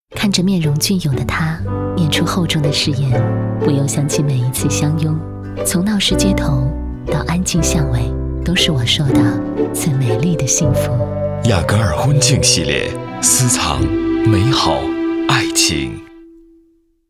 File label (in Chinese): 女 电台促销; 雅戈尔